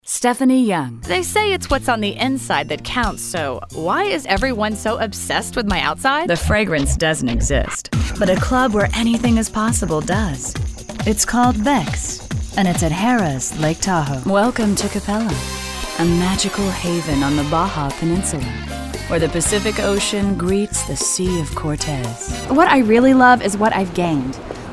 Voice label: Commercial